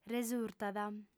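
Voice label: "resùrtat", Sardinian female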